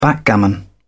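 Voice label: "backgammon", British English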